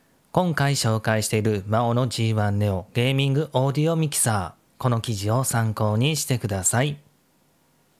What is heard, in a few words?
maono g1 neo